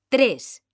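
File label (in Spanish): "tres", Spanish, female; mekanta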